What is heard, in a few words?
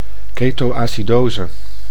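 "keto-acidose", Dutch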